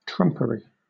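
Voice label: "trumpery", British English; pronunciations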